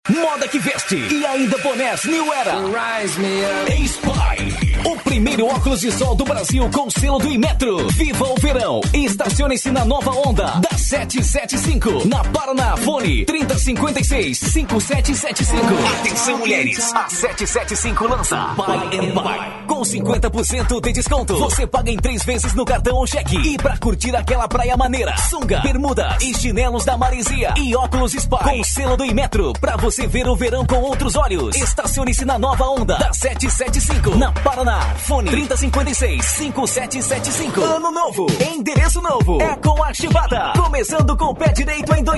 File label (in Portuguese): festa locutor